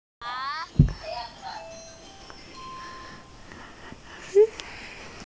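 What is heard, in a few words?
"leather jacket", Mandarin, hearing-impaired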